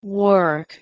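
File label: "wrg", Proto-Indo-European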